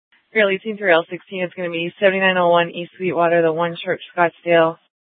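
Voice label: Scottsdale PD North Dispatch; Nth Dispatch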